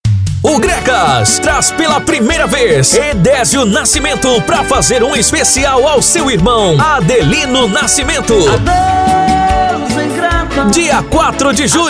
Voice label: carro de som; gregas; gm gravacoes

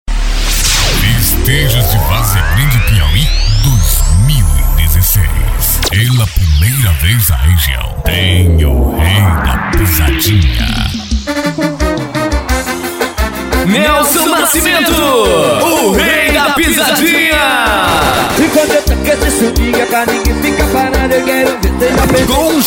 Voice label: comercial; varzea g; samuel cds

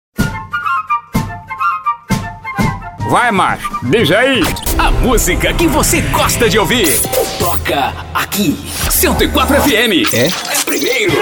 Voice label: locutor comercial jovem padrao varejo e caricato

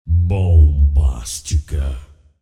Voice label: locutor vinheta bombastica

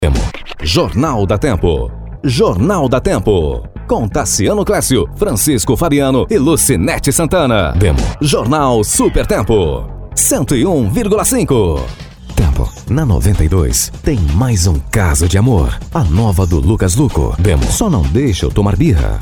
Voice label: vinhetas voz locutor vinhetas radio

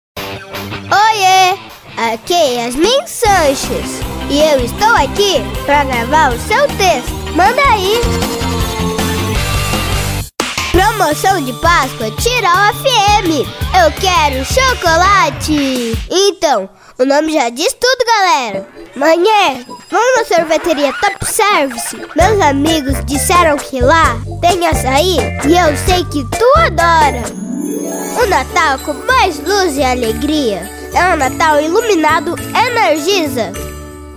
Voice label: vox infantil

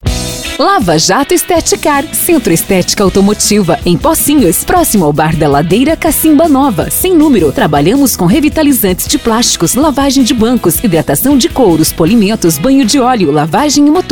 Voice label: VOZ IMPACTO GRAVE vinheta para lava jato lava car centro estetico vinheta spot chamada; comercial

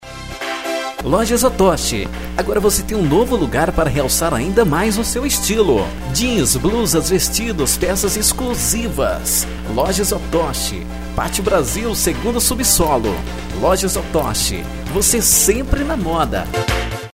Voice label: spot lojas; otoch

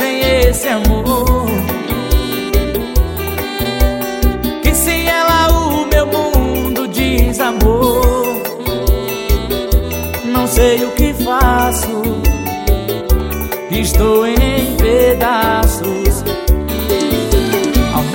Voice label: amigo locutor

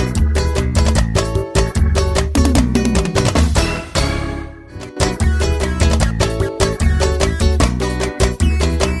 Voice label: vinheta locutor, swing

